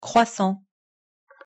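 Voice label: "croissant", French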